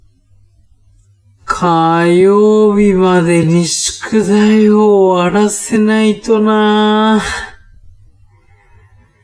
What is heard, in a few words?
man